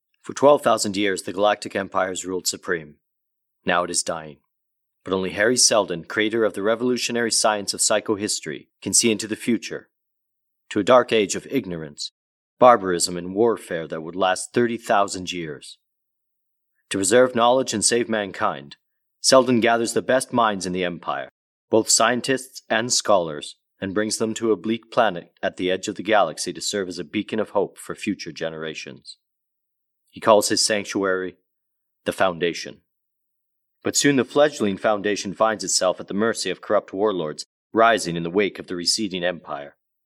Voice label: Narration